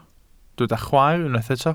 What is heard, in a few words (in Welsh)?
"chwaer", Welsh